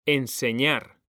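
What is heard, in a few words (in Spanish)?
"enseñar", Spanish; mekanta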